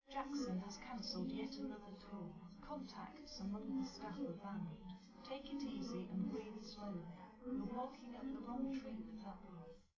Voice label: female liverec; SiSEC11; underdetermined